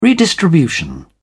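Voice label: "redistribution", English, British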